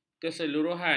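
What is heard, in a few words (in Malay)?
"keseluruhan", Malay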